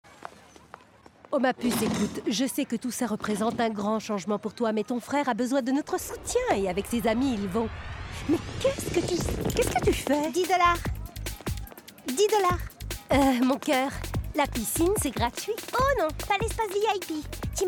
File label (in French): Doublage maman fille